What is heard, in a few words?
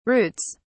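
"routes", British English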